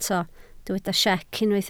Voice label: "siec", Welsh